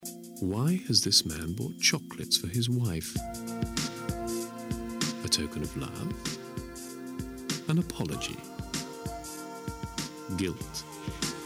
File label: Commercial